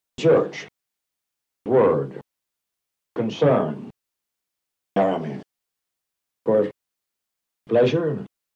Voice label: kent, accents; staple

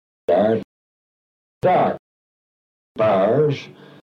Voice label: kent bath, kent accent in the 19th century bath; staple

